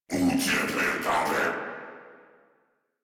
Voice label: Surprised, Battle Barks